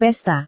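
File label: "pesta", Indonesian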